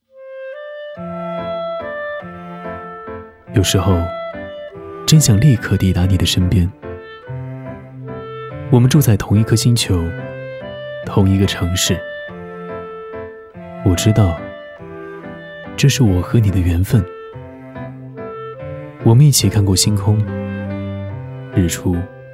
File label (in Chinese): 品质情感独白; 立刻抵达你的身边